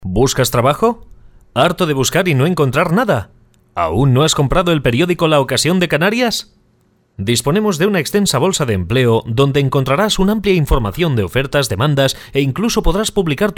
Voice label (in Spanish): locutor castellano